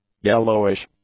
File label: "yellowish", english; adjectives